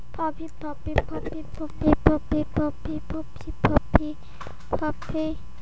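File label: "puppy", spoken word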